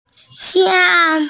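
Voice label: "xiang1", Mandarin Chinese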